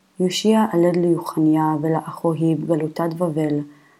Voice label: Matthew Reading Practice, Aramaic